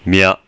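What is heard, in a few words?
"mya", Japanese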